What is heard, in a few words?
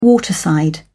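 "waterside", British English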